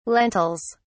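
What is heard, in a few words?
"lentils", British English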